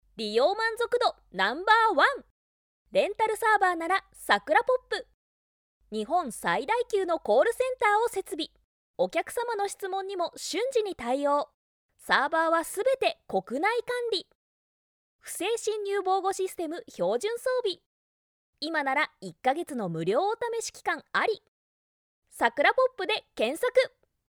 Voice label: female